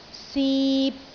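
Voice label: "sip3", Cantonese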